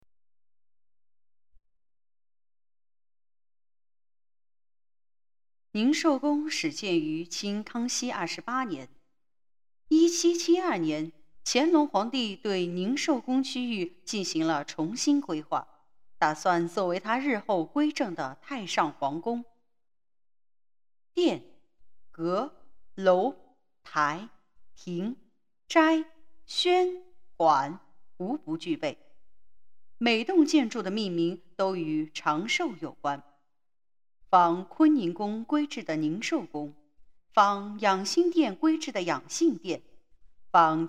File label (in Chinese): 纪录片 配音干音; 故宫